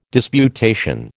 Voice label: "disputation", American